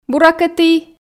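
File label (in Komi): буракӧ ті, Komi, female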